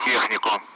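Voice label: Speech, Russian; Target; Allied Flight; DCS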